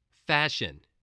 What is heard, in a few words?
"fashion", voice